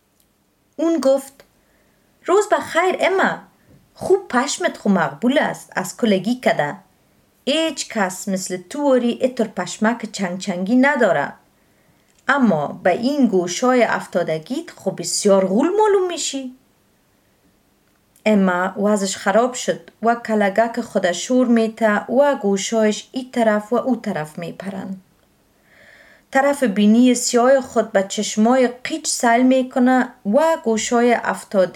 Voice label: dari

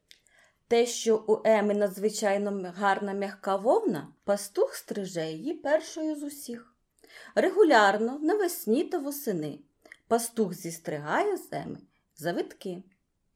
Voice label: ukrainisch

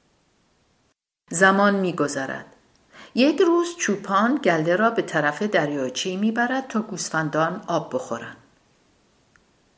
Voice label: farsi

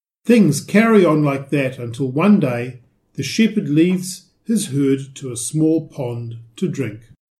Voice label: englisch

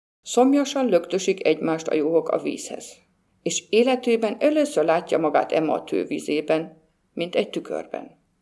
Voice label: ungarisch

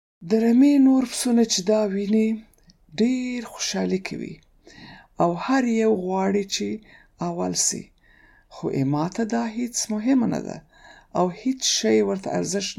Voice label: paschtu

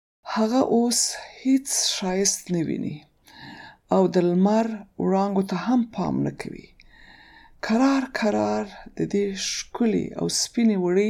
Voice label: paschtu

